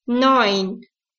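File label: "neun", German, nine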